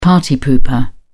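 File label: "party pooper", British English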